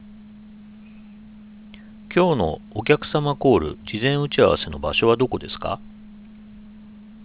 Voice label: Ja JP narrow; watson stt; bluemix